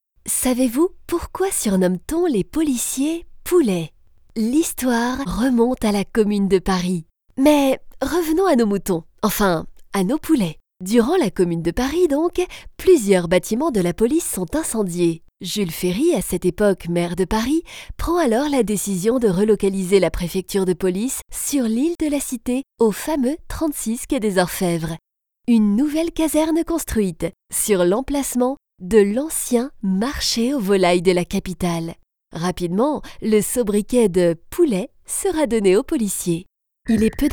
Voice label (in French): mix audio guides